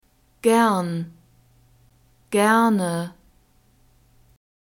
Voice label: "gern gerne", German

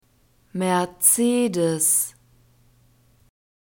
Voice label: "mercedes", german; masculine

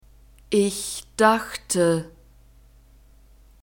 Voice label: "ich dachte", German; verbs past tense irregular

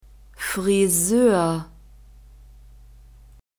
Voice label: "friseur", German; masculine

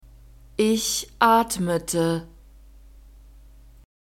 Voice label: "ich atmete", German; verbs past tense regular

